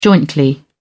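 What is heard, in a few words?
"jointly", British English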